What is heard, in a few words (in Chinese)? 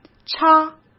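"插" Mandarin Chinese; chinese-flashcards; hsk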